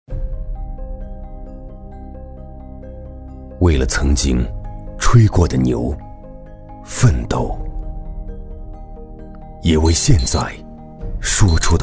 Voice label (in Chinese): 男, 专题, 走心质感, 品牌, 集团周年庆